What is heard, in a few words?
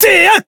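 attack, Japanese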